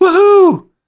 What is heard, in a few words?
cheer, english; Quake 4 Alpha